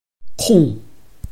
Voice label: "kong4", Chinese